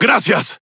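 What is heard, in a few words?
Spanish Speech; Quick Commands Thank You; World Of Warships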